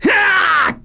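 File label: Twi male attack; XBOX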